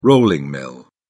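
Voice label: "rolling mill", English, British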